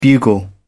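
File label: "bugle", British English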